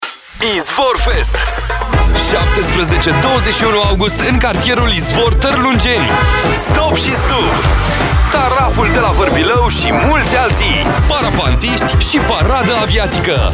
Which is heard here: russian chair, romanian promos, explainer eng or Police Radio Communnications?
romanian promos